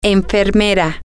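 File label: nurse, Spanish, female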